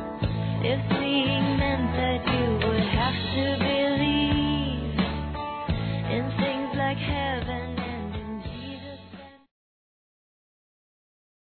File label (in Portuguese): BOA VIAGEM(M); Telemensagens 2017